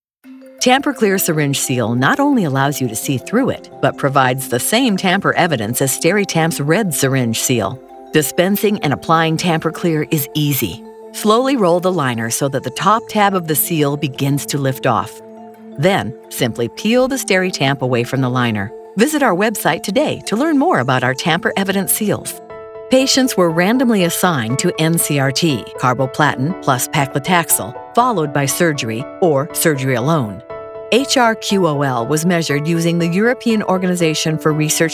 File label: MEDICAL NARRATIONS